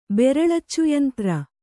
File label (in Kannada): "ಬೆರಳಚ್ಚುಯಂತ್ರ", Kannada